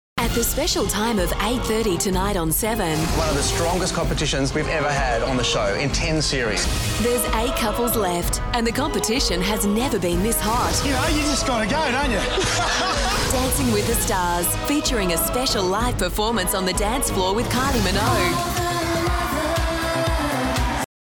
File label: VOICE OVER TV PRMO; CHANNEL 7; DWTS